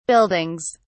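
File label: "buildings", British English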